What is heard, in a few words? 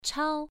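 "chao" Mandarin, first tone, pronunciation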